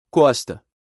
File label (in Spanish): Costa en portugues